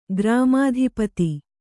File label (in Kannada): "ಗ್ರಾಮಾಧಿಪತಿ", kannada